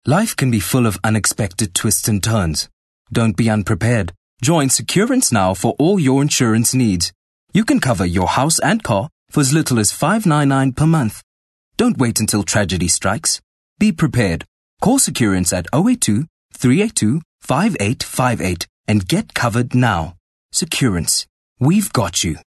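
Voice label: English Corporate South African Neutral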